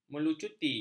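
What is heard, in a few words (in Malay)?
"melucuti", Malay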